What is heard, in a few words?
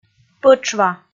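"пӧтшва", Komi, female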